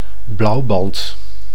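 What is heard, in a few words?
"blauwband", Dutch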